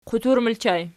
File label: "qutur", Archi